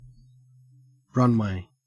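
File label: "runway", Australian English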